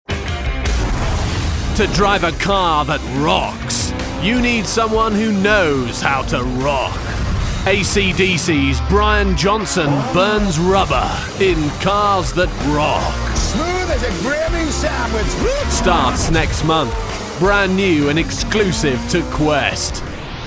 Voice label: Promos Narration